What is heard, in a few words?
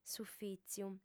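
"s'ufìtziu", female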